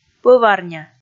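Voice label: "пӧварня", Komi, female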